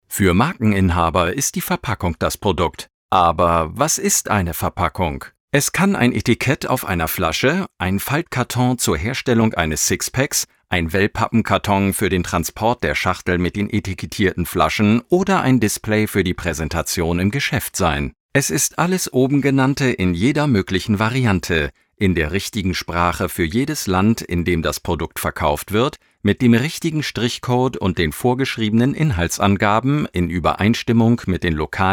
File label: educational, german voice over actor